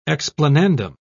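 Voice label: "explanandum", English, British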